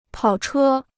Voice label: "跑车", Chinese

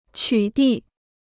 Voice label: "取缔", Chinese

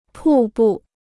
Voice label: "瀑布", Chinese

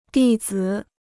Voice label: "弟子", Chinese

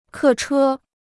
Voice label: "客车", Chinese

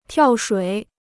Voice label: "跳水", Chinese

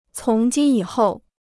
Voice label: "从今以后", Chinese

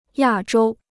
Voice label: "亚洲", Chinese

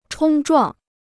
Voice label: "冲撞", Chinese

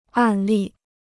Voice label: "案例", Chinese

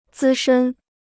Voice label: "资深", Chinese

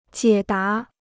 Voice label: "解答", Chinese

